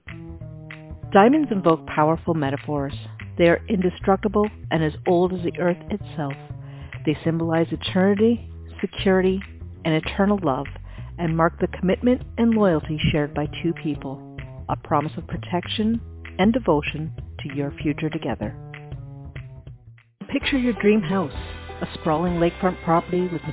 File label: Female